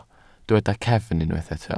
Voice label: "cefn", Welsh